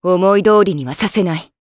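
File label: Vox Skill, Japanese; Hilda; kingsraid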